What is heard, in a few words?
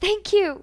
Woman Rescue